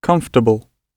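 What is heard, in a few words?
"comfortable", British English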